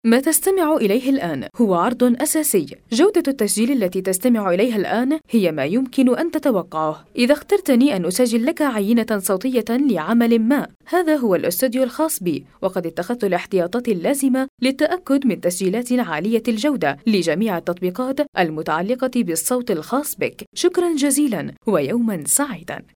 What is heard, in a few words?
Arabic Female